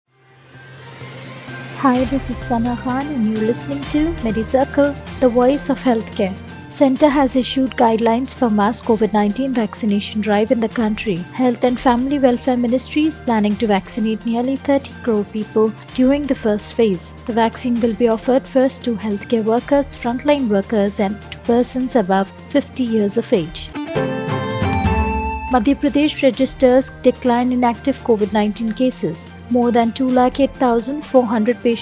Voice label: english news